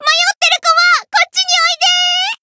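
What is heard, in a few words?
variable chant, Japanese; ilulu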